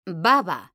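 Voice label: "BABA", Spanish, female; mekanta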